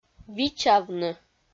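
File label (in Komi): "вичавны", Komi, female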